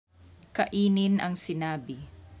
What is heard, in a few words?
Tagalog